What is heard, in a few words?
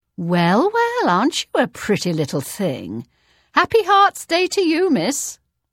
Hello Imperial Female; Unused Holiday